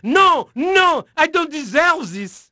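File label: French male, voice; Pirates Of The Caribbean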